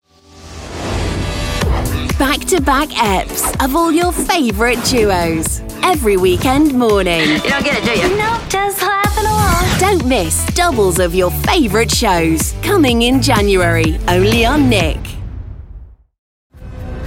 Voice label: english british promos